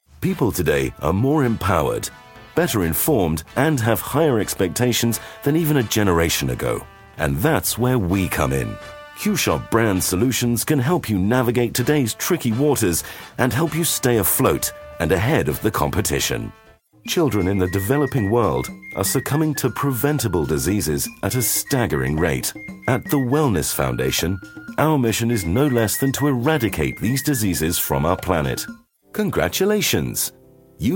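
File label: British, narration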